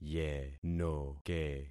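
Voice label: "yenoke", concatenated syllables; Affixing experiment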